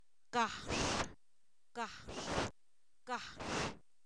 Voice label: cerca, Zapoteco, san miguel albarradas; adverbios

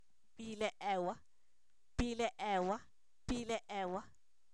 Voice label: zapote, Zapoteco, san miguel albarradas; comestible

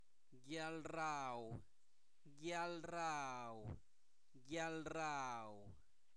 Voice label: comida, Zapoteco, santo domingo albarradas; alimentos; INALI

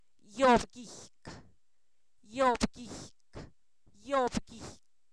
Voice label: seso, Zapoteco, san miguel albarradas; cuerpo; INALI

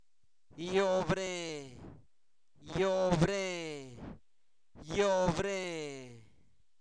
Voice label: disentería, Zapoteco, santo domingo albarradas; INALI; enfermedades

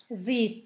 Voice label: lejos, Zapoteco; Adverbios; San Pedro Mártir; INALI

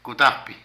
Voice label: Tejer, Zapoteco de Petapa; Ropa; Vocabulary

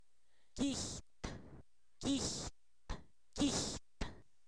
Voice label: calabaza, Zapoteco, san miguel albarradas; comestible

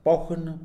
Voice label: "rápido", Mixe, hombre; Tiempo

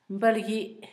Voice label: estrella, Zapoteco, Asunción Ocotlan; Fenómenos; INALI; Haspelmath

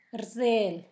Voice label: caminas, Zapoteco, San Pedro Mártir; Verbos; INALI

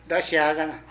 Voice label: Niet@, Zapoteco de Petapa; Parentesco; Vocabulary

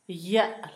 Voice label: mano, Zapoteco, Asunción Ocotlan; INALI; Haspelmath

